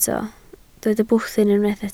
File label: "bwthyn", Welsh